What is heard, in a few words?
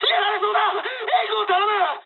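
aircraft damaged mood med, speech japanese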